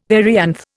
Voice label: "perianth", Indian; Dictionary